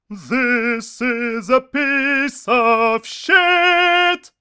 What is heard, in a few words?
Baritone Piece Of Sh Explicit